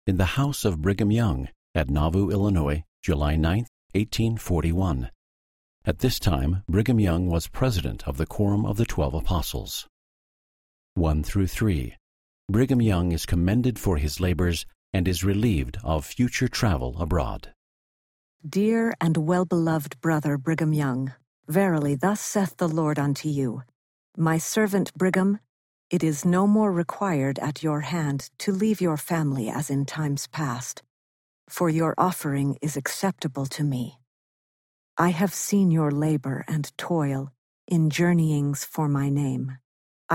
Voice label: section female voice eng

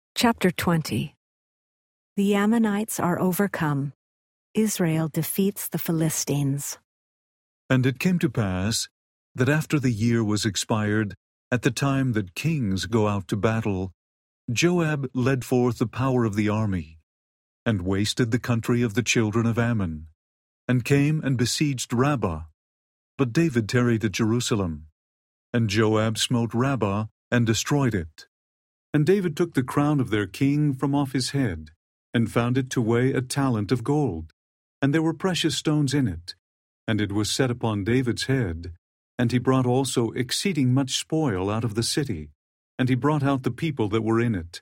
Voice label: male voice, eng; the old testament

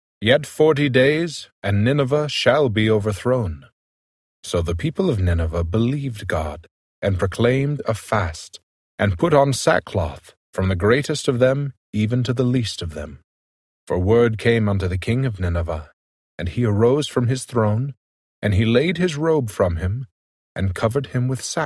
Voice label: jonah male voice; jonah 03; the old testament

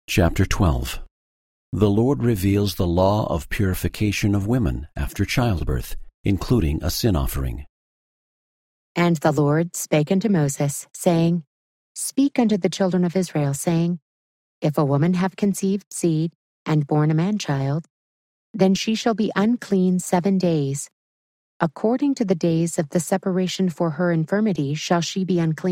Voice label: female voice, eng; leviticus 12; the old testament

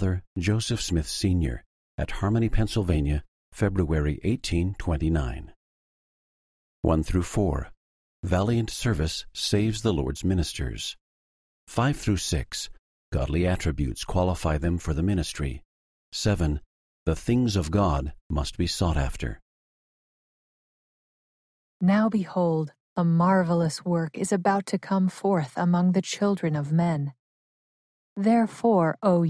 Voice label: section female voice eng

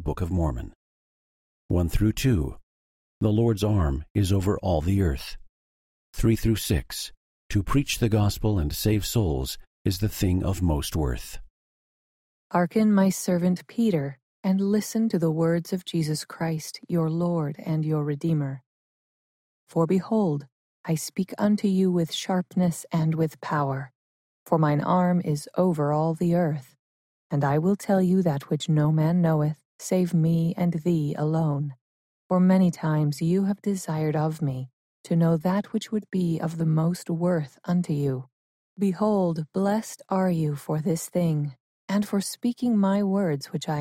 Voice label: section female voice eng; the doctrine and covenants

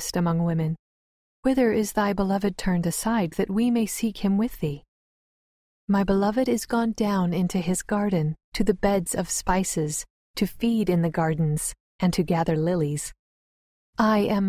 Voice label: the song of solomon female voice; the song of solomon 06; the old testament

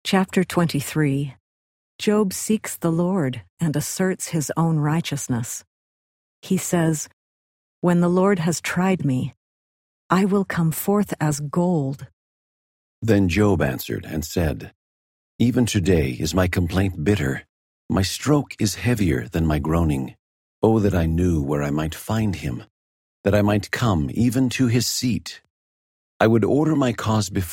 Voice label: job male voice; the old testament

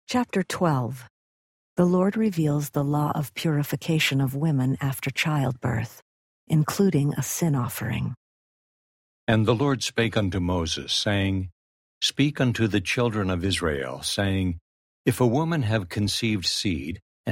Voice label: male voice, eng; leviticus 12; the old testament

